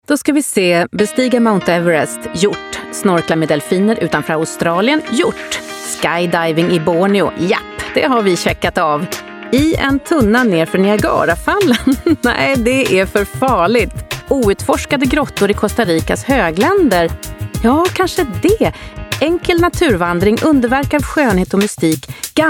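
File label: Swedish commercial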